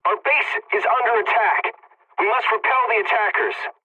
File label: pilot base under attack, english; War Thunder